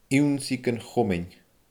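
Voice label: "ionnsaigh gun chomain", Scottish Gaelic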